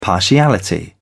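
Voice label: "partiality", British English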